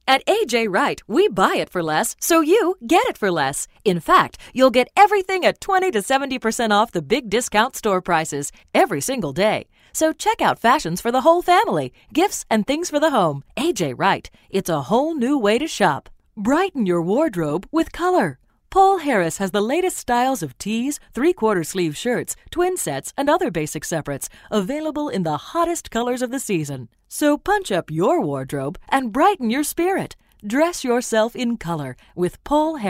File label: high energy